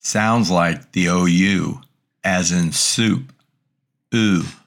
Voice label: Greek Vowels Diphthongs ου; greek grammar